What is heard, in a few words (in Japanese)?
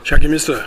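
"yagimisu", 南山形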